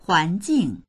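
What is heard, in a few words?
"huan2jing4", Mandarin Chinese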